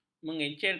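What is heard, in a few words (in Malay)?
"mengencerkan", Malay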